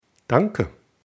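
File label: "danke", German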